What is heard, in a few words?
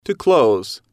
"to Close", English